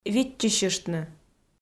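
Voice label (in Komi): "виччысьыштны", Komi, female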